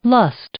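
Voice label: "lust", English; noun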